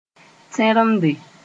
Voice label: "tẽremde", Moore